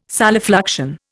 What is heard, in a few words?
"solifluction", Indian